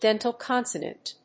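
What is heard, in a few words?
"dental consonant", English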